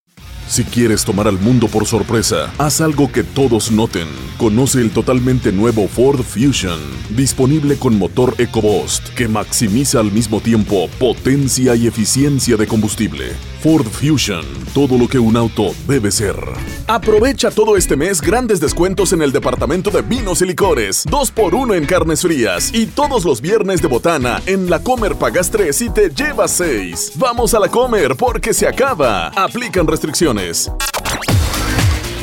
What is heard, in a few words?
Spanish Mexican commercial